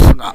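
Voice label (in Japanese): "suga", 南山形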